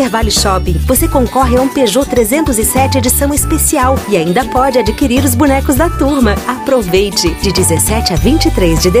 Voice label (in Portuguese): spot natal; centervale